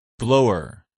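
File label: "blower", English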